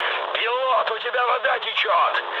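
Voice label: Russian damaged water mood med, speech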